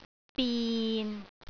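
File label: "bin6", Cantonese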